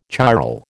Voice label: "chiral", American